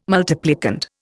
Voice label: "multiplicand", Indian

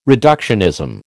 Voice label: "reductionism", American

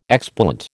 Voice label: "explant", American

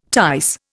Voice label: "tice", Indian

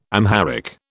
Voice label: "Amharic", British

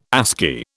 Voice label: "ASCII", British

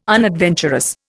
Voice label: "unadventurous", Indian

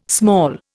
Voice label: "small", Indian

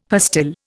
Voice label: "pastille", Indian; Dictionary

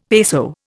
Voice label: "peso", Indian; Dictionary

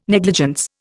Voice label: "negligence", Indian

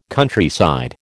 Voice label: "Countryside", American

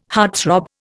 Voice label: "heartthrob", Indian

